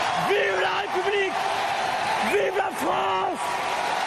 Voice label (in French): senflamme et hurle; emmanuel macron